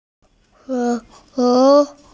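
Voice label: "shui3chi2", Mandarin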